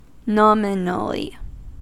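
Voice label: "nominally", American English; pronunciations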